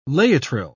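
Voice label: "laetrile", British English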